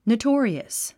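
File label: "notorious", English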